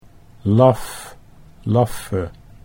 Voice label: laf laffe, Dutch

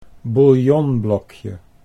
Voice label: "bouillonblokje", Dutch

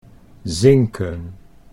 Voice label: "zinken", Dutch

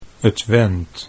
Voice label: "twent", Dutch

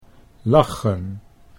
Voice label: "lachen", Dutch

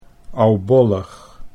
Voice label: "oubollig", Dutch

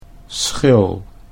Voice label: "schil", Dutch

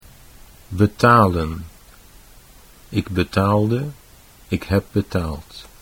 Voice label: betalen ik betaalde, Dutch

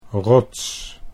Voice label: "rots", Dutch

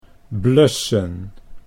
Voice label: "blussen", Dutch

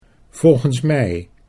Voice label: "volgens mij", Dutch

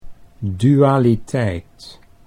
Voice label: "dualiteit", Dutch

